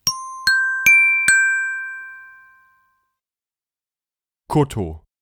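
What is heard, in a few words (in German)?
"Koto", German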